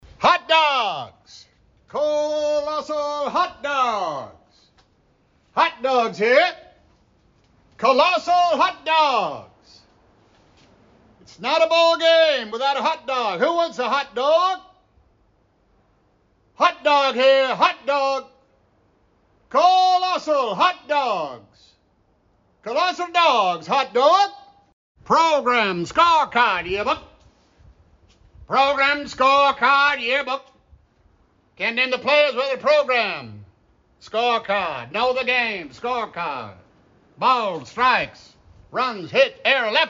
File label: Hawker Loop, No Crowd; Guest Hawker Tom Hanks